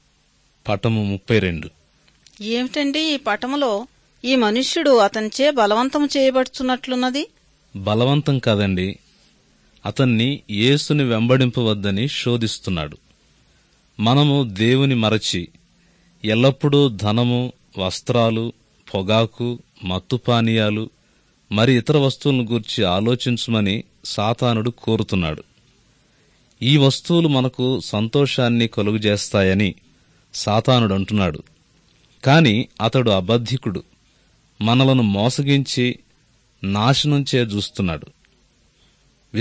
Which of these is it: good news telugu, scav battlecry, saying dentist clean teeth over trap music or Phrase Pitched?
good news telugu